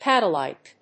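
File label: "paddle-like", English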